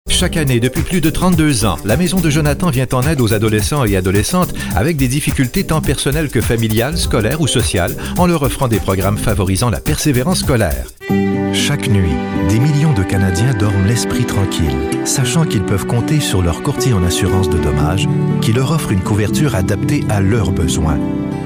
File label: commercial